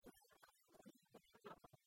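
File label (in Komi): пызан вылын куйлӧ уна кабала, Komi, female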